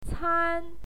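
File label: "can", Mandarin Chinese, first tone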